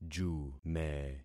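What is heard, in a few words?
"jume", concatenated syllables; Affixing experiment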